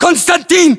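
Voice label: "konstantin", battlechatter, Russian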